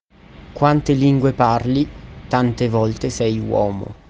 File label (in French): Italien traduction phrase